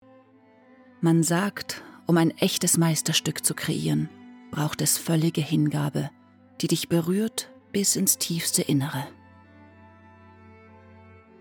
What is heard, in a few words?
Voiceover; Silhouette